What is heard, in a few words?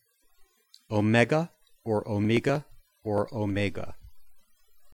greek omega